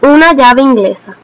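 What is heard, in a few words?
wrench desc, Spanish; tuxpaint-stamps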